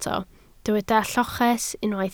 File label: "lloches", Welsh